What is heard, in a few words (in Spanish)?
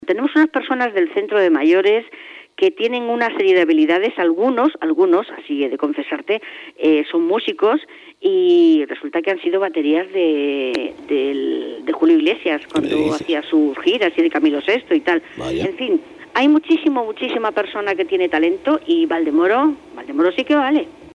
noticias